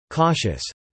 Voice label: "cautious", English

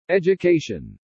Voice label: "education", English

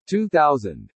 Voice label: "2000", English

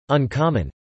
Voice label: "uncommon", English

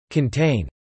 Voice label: "contain", English

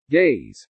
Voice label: "gaze", English

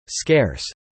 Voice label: "scarce", English

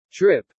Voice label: "trip", pronounce

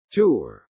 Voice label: "tour", English

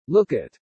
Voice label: "look at", English

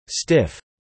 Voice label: "stiff", English

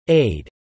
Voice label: "aid", English

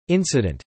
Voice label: "incident", English

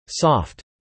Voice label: "soft", English

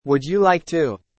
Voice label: "would you like to", English

